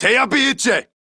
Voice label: Reload Male, Battle Barks; en; Modular Corpus